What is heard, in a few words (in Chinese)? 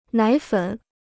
"奶粉", Chinese